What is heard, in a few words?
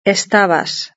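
"estabas", Spanish; verb-conjugator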